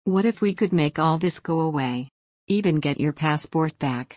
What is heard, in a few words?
dialog; Oceans 8